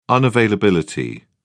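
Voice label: "unavailability", British English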